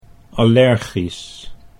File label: "allergisch", Dutch